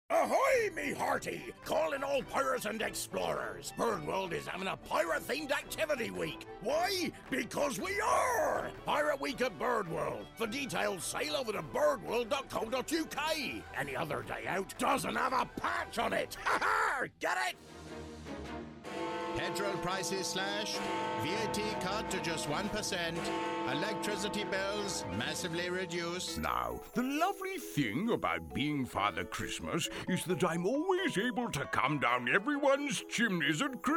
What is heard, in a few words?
Character